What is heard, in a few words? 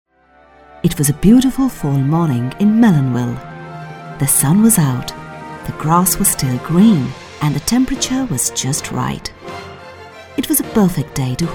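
Storytelling with music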